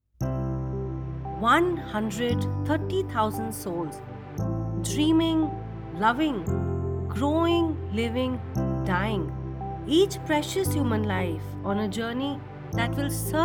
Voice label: Eng Poem